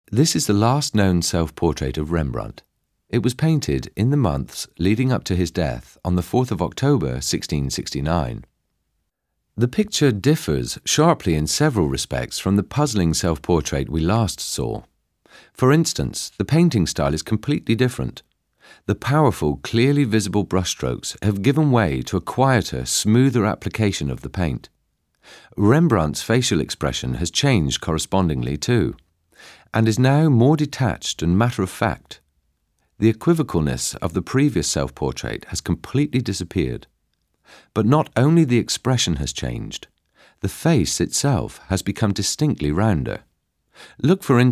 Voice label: male english audio guide; rembrandt; Devine Voices